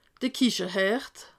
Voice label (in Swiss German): "kiche", alsace